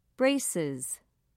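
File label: "braces", English, British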